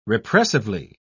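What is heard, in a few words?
"repressively", British English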